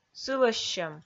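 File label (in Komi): "сылӧссьӧм", Komi, female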